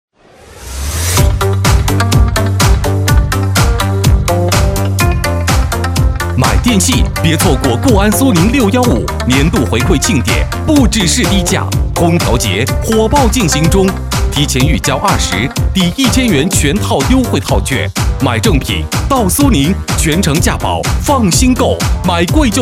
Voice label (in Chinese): 男 促销 电器; 苏宁电器